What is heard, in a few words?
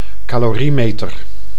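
"caloriemeter", Dutch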